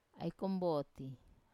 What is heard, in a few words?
"ai komboti", wamesa